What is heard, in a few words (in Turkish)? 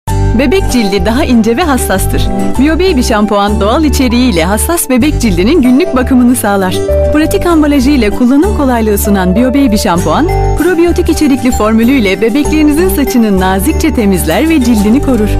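reklam, Turkish; biobaby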